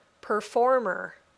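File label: "performer", English word; The City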